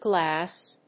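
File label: "Glas", English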